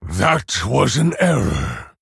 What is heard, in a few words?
Male Orc Ogre Oops